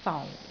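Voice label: "fau4", Cantonese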